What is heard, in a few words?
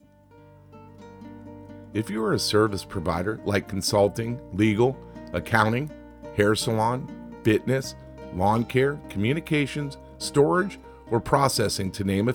text voice and music; services